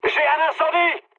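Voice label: on fire, mood medium, French; War Thunder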